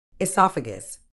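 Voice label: "oesophagus", medical English, British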